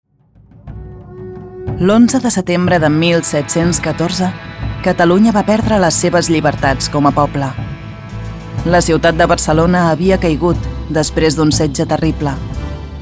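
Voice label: Catalan, Documentary; GoLocalise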